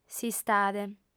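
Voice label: "s'istade", female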